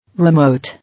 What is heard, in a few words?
"Remote", English